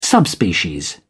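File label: "subspecies", British English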